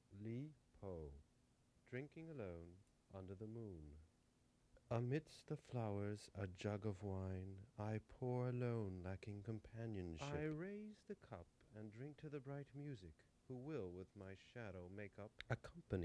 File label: recorded voices